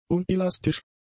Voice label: "unelastisch", German; adjectives